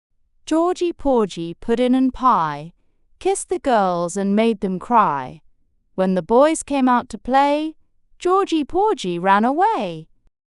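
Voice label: female British; Georgie Porgie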